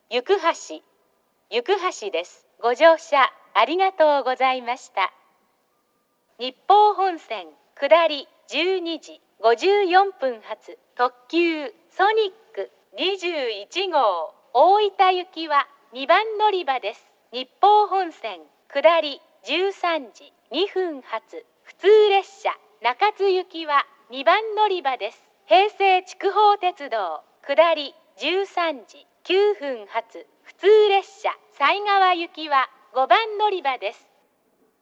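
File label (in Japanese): 到着放送＋乗換え放送, 女声; 行橋; 平成筑豊鉄道・犀川行き乗換え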